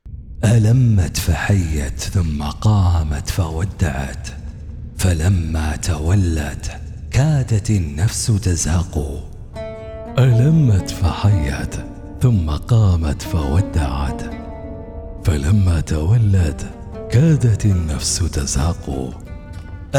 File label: arabic movie trailers